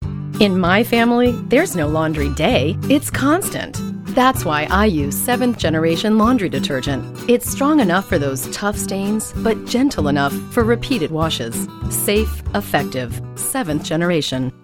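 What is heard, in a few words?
English Commercial Friendly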